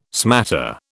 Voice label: "smatter", British